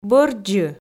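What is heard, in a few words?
"бӧрддзы", Komi, female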